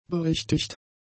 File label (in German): "berichtigt", German; adjectives